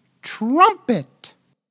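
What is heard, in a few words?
English trumpet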